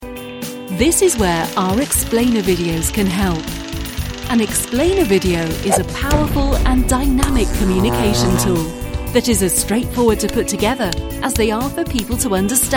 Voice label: english british narration

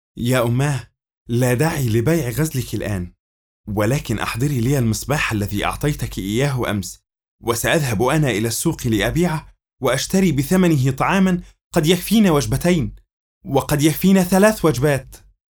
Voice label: arabic audiobooks; arabic voice actors

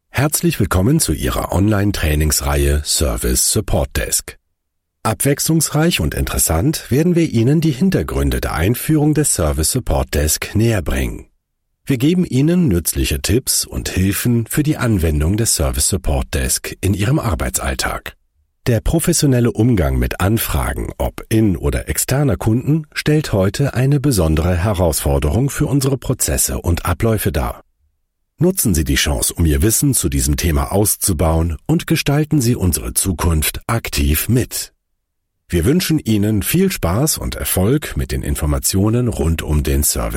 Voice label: german e-learning

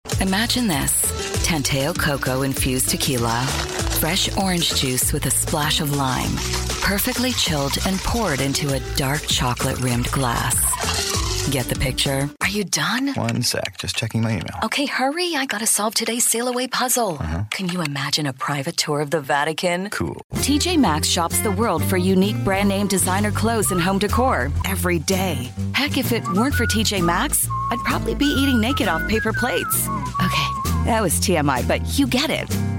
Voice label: english canadian commercial, sultry; english canadian voice actors

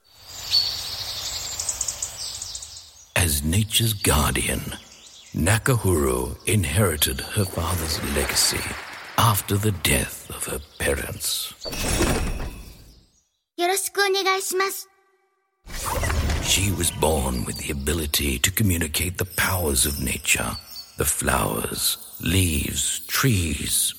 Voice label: english australian video games

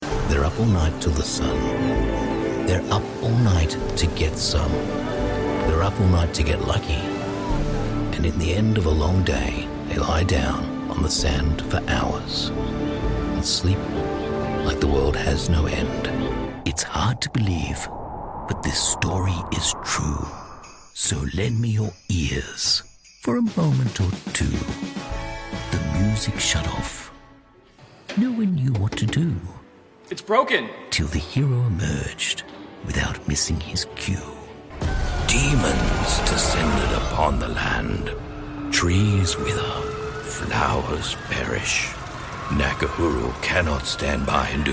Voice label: english australian commercial

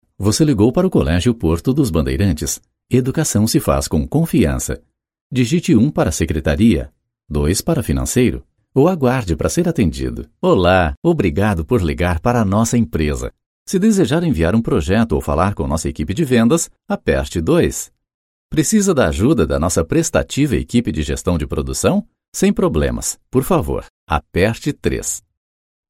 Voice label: portuguese brazilian ivr

